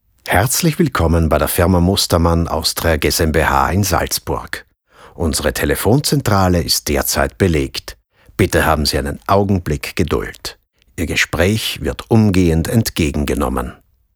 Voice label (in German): Anrufbeantworter